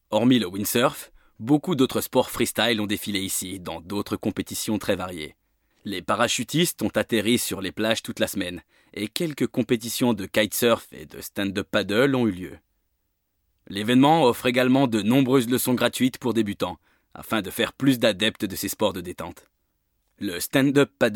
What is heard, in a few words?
male french sport comercial; ideasonora